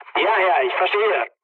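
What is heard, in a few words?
German chat, speech; War Thunder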